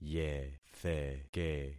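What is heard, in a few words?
"yetheke", concatenated syllables; Affixing experiment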